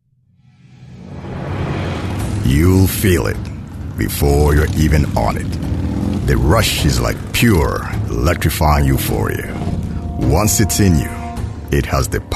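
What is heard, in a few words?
Swahili Tanzania, male, commercial; voice-over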